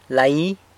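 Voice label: "lai", he, she, it; him, her, it (distal), San Lucas Quiaviní Zapotec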